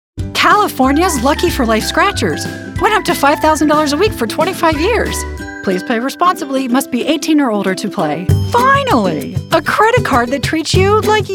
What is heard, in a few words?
Commercial